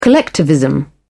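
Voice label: "collectivism", British English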